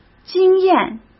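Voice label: "经验", Mandarin Chinese; hsk; chinese-flashcards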